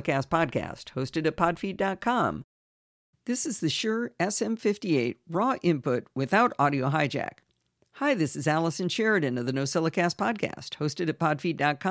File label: heil sm58 tempest comparison